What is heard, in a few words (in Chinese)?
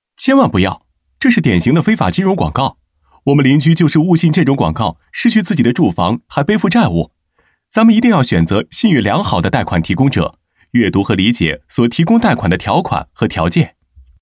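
男 角色 动画配音; 贷款; 动画贷款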